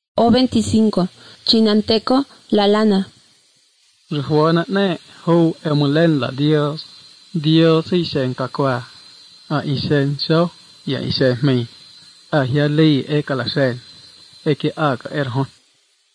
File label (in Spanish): spanish mexico